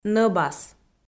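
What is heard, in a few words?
"нӧбас", Komi, female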